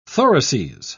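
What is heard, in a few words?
"thoraces", British English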